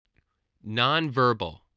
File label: "nonverbal", voice